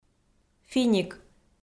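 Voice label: "финик", Komi, female; kpv audio dict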